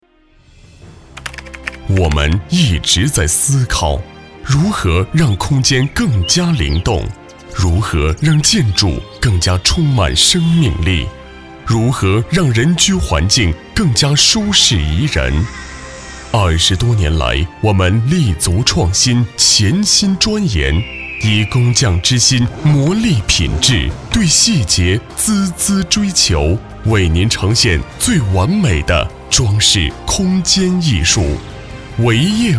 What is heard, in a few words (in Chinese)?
男国 企业宣传片; 维业集团